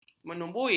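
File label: "menyumbui", Malay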